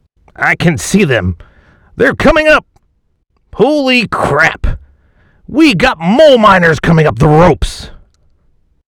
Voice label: Military Police Guard Slight Appalachia Twinge